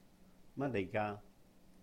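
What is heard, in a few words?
"māt-lèih ga?", Cantonese; What is it?; 乜嚟㗎？; mat1lai4gaa3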